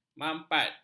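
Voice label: "mampat", Malay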